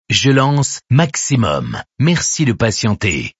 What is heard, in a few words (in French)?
lancement radio; maxximum